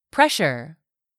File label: "PRESSURE", English; 3000 words